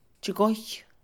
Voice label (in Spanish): fallar, Mixe, Mujer; INALI